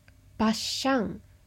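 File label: "basshan", Japanese; バッシャン – basshan; manga onomatopoeia sound effects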